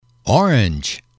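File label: "orange", English, male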